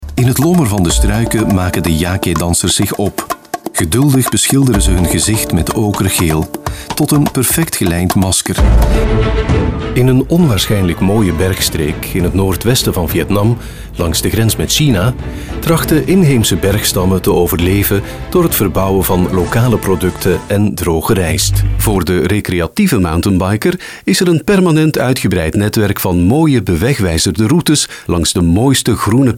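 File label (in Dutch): flemish male commercial; dutch; voice demos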